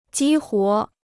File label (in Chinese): "激活", Chinese